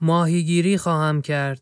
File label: male fish, persian